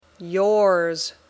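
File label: "yours", English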